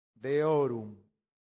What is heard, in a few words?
"deorum", latin; santillana